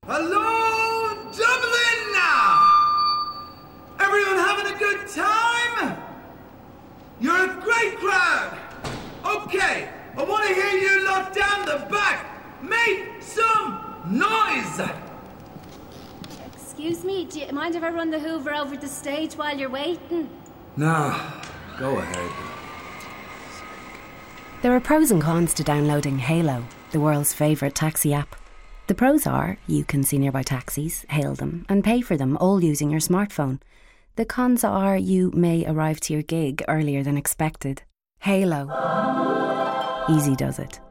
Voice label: radio ad; hailo dublin; vicar street

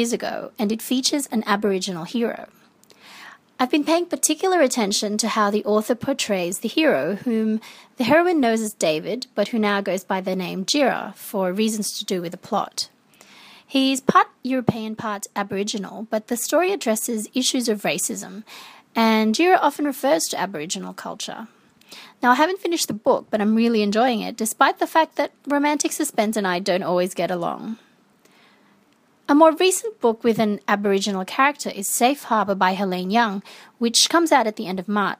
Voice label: reading; aboriginal characters in romance books

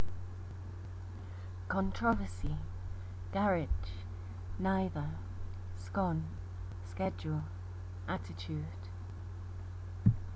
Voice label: stockport uk female

